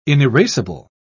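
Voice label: "inerasable", British English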